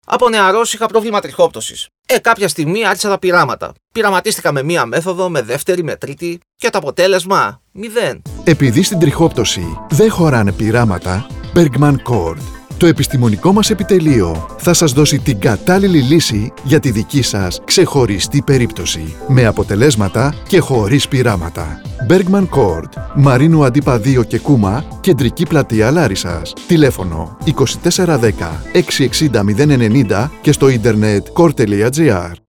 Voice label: radio spots; trixoptwsi metamosxefsi malliwn therapies; larissa